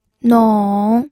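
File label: "nong", third tone, pinyin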